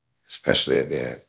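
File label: Voice Acting, Spanish, male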